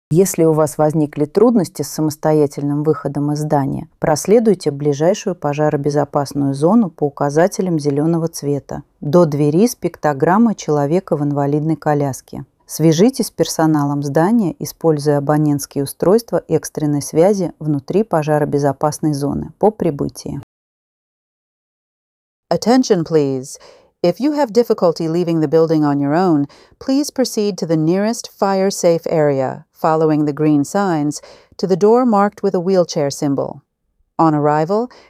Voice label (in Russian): opoveshchenie soobshchenie ru en; rechevye soobshcheniya soue